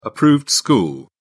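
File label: "approved school", British English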